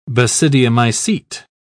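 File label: "basidiomycete", British English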